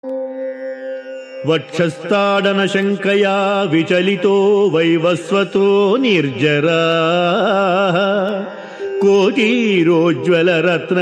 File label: Sanskrit; Sri Adi Sankaracharyas Sivananda Lahari; Adi Shankaracharya; श्रीशिवानन्दलहरी ६५